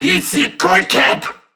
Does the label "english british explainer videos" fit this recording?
No